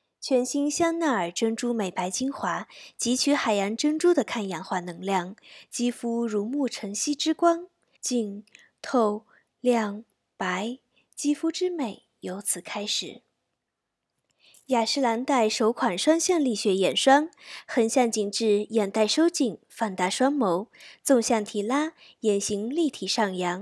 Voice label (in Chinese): 护肤品广告词